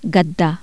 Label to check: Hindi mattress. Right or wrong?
Right